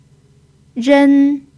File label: "ren1", Chinese